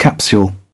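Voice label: "capsule", British English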